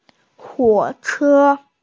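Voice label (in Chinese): "huo3che1", Mandarin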